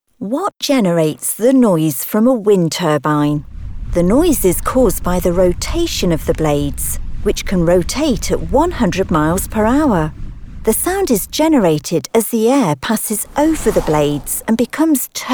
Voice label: Explainer Explanatory